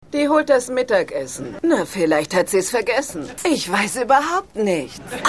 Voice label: Empfangsdame, Stimmproben; Seinfeld

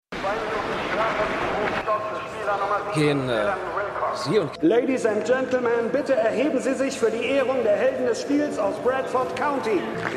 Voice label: Stadionsprecher; This Is Us

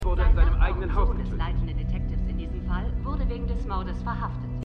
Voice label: Nachrichtensprecherin; Truth Be Told

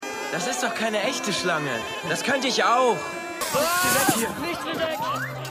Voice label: Junge; Dumbo 2019

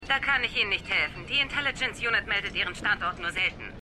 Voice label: Telefonstimme; Shades Of Blue

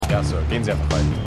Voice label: Uniformierter Wachmann

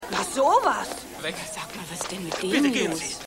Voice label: Frau

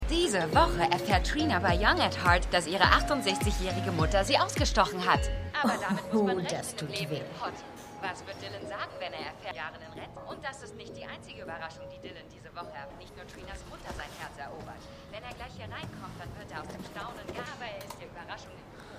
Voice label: TV Sprecherin; The Good Fight

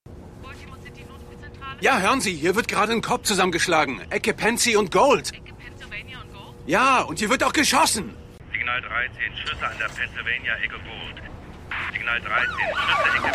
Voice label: Telefonstimme Anrufer; The Wire

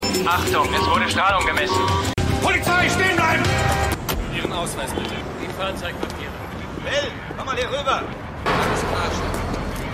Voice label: Warn Stimme Polizei Verkehrskontrolle; Mission Erde

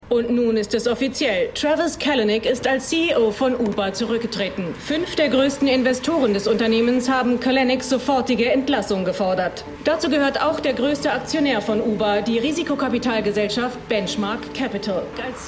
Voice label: Nachrichtensprecherin; CNBC; WeCrashed

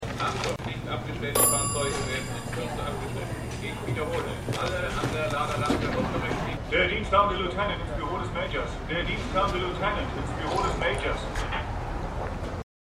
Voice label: Durchsagen; The Wire

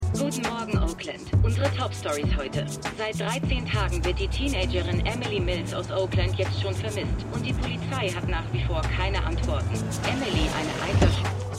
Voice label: Reporterin; Truth Be Told

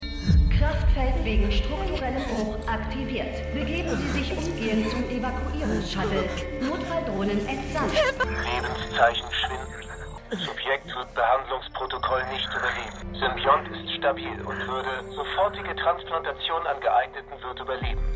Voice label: Computer F Medizindrone M, Stimmproben; Star Trek Discovery

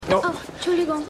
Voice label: Maedchen; Stimmproben; Arrow

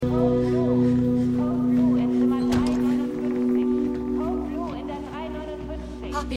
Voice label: Krankenhausdurchsage; Truth Be Told

